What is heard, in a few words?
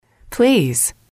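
"please", English, female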